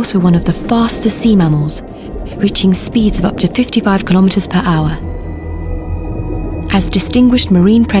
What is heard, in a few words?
Documentary Killer Whale (RP Accent)